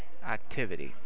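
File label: "activity", American, male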